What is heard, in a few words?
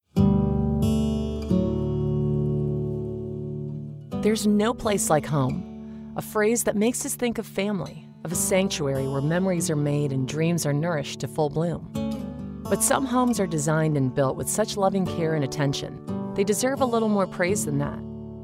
Voice Over; 239 Wallis Road in Rye New Hampshire